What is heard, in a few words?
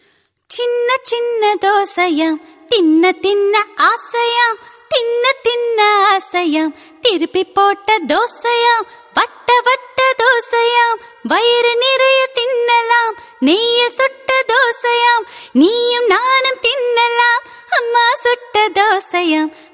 Tamil; Chinna Dosaya